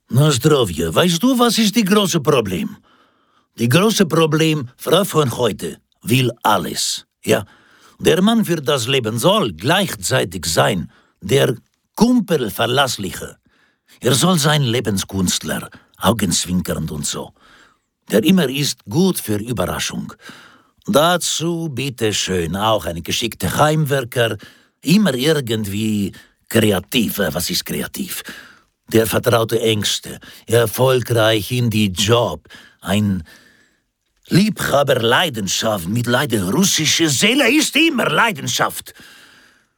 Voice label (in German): russischer Dialekt